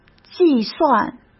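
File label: "计算", Mandarin Chinese; hsk; chinese-flashcards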